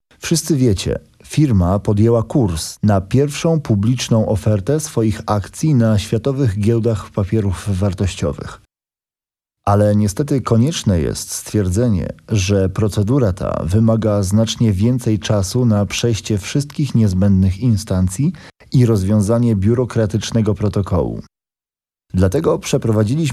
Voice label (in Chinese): 波兰语男, 外语, 小语种, 沉稳